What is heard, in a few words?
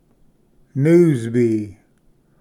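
"news bee", English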